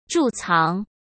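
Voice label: "贮藏", Mandarin Chinese; chinese-flashcards; hsk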